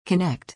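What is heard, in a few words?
"connect", English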